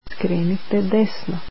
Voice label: Turn right, croatian